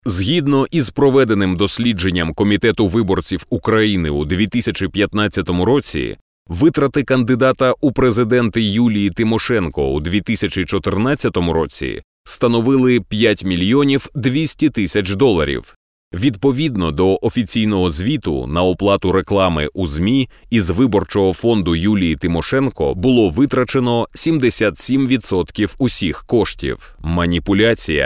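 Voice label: Ukrainian, male, announcement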